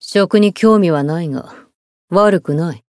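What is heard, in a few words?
voice zodiac lunch, Japanese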